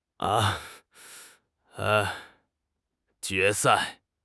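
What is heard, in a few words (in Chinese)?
"jue2 sai4", Mandarin Chinese; 汉语口语新时代-初级下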